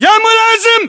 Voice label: rank lieutenant, localized english battlechatter